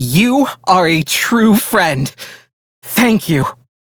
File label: Crew Heal, Male; Dialog; Warframe; Railjack Crew; Perrin Sequence